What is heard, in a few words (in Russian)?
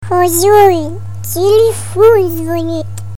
Prikol, Detskij golos hozyain telefon zvonit